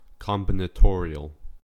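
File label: "combinatorial", US English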